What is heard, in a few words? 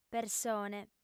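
"persone", Sardinian, female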